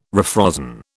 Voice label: "refrozen", British